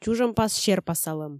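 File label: "чужӧмпас серпасалӧм" Komi, female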